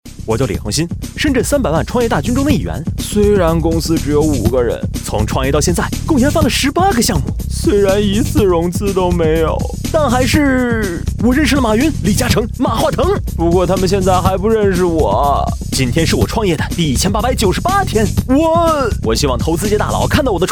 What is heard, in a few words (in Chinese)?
动画 模仿; 王大锤万万没想到